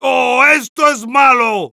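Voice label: negative vocalization, Spanish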